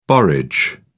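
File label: "borage", British English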